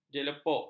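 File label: "jelepok", Malay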